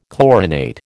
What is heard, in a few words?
"chlorinate", American